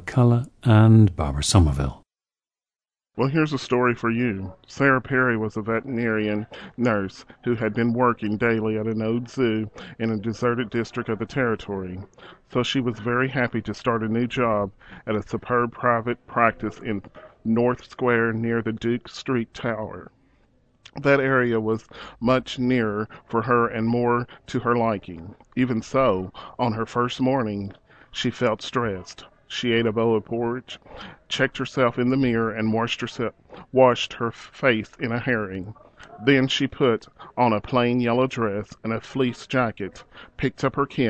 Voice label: kentucky, dialects